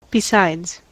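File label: "besides", US English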